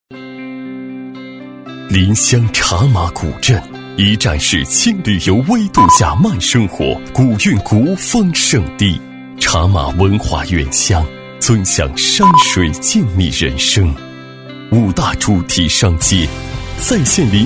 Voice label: 男 广告形象片 优美舒缓; 临湘茶马古镇; 厚重有力; 大气浑厚

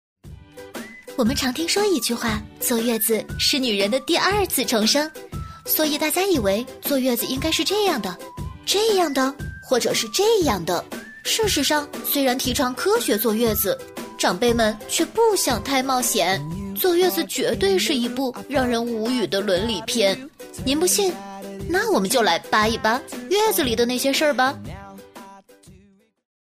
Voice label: 女; 坐月子; 幽默搞怪; 飞碟说MG

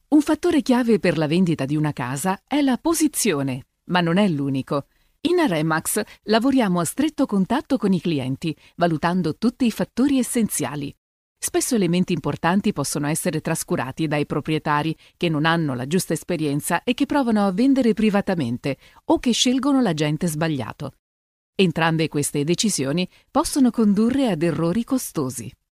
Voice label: 意大利语女声

